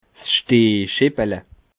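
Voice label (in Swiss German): "stehscheppele", Bas Rhin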